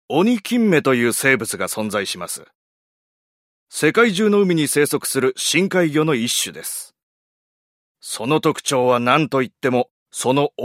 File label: narration